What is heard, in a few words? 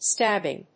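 "stabbing", English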